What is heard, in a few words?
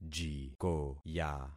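"jikoya", concatenated syllables; Affixing experiment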